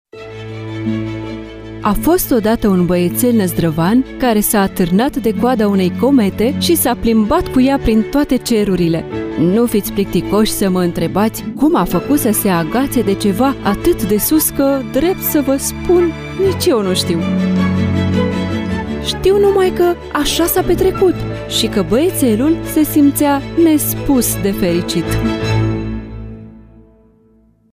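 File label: Romanian, Documentary; GoLocalise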